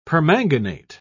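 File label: "permanganate", British English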